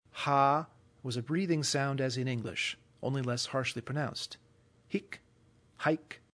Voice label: ha was a breathing sound